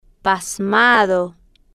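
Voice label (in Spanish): "pasmado", Spanish, female, slow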